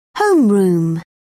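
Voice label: "homeroom", British English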